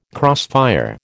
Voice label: "crossfire", American